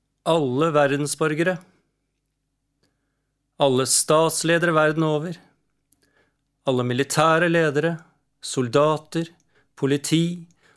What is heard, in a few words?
global disarm call, Norwegian